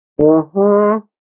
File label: Speech Male